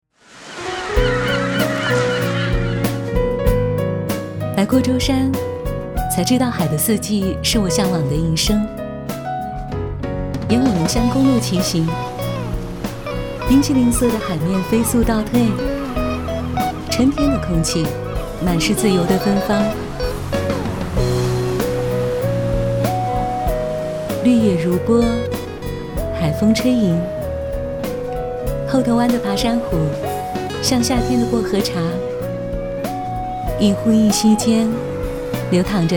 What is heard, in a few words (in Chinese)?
欢快海边独白; 抖音